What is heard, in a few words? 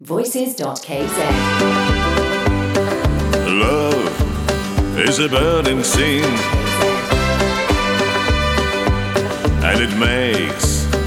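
English, vocal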